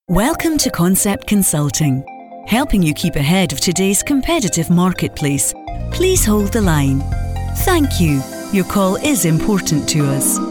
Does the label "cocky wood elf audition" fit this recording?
No